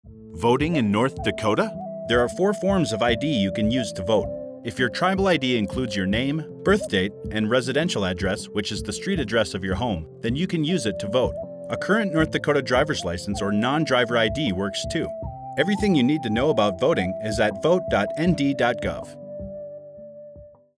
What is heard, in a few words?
Tribal Radio PSA; Voter ID Campaign